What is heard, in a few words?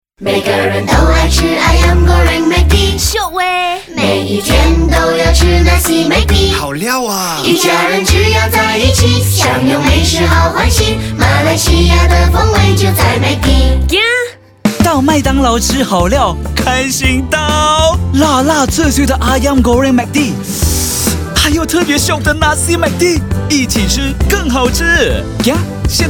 Mandarin; McDonalds